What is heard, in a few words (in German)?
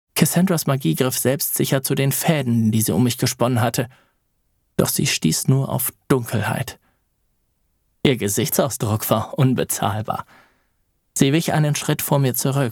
Hoerbuch Fantasy